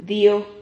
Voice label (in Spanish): "dio", Silabas